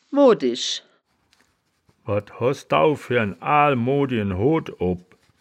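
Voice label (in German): "moodisch", Wittlicher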